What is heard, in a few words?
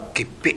"geppe", minamiyamagata